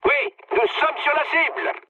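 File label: near waypoint, mood medium, French; War Thunder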